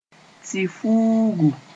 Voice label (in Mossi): "tɩ-fuugu", moore; vegetation